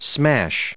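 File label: "smash", English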